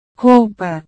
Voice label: "roupa", Portugués; ropa

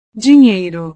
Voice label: "dinheiro", Portugués; dinero

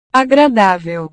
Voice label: "agradável", Portugués; agradable

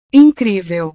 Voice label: "incrível", Portugués; increíble

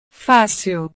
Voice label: "fácil", Portugués

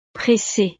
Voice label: "pressee", frances; estados animo

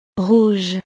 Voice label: "rouge", frances

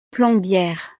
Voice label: "plombiere", frances; profesiones

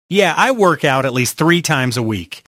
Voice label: dialogo, ingles; work out; phrasal verbs

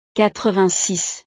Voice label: "quatre-vingt-six", frances

